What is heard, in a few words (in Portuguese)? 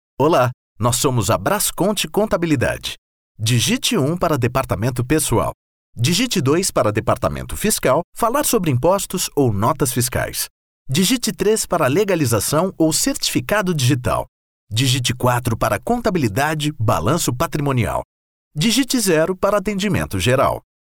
atendimento diurno locução informal natural locutor